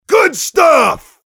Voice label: mobster cheers